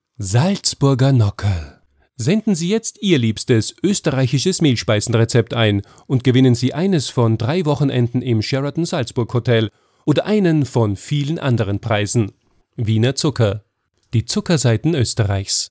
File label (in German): Werbung; Salzburger Nockerl